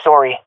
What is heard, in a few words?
sorry, swat fem; vo; Counter Strike Model Pack; CS:GO